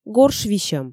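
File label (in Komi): горш висьӧм, Komi, female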